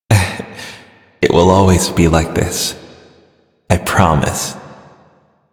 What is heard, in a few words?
Male en, ambient dialog; Warframe; Duviri